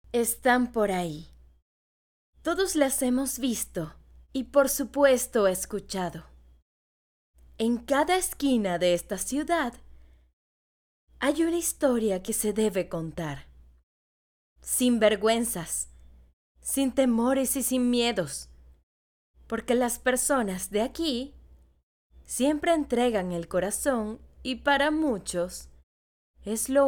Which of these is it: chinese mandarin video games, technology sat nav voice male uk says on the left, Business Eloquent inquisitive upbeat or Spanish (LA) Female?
Spanish (LA) Female